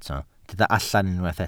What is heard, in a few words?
"allan", Welsh